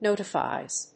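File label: "NOTIFIES", English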